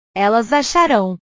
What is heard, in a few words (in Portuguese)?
"elas acharao", portugues; verbos